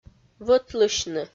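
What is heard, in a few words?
"вӧтлысьны", Komi, female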